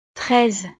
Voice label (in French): "treize", frances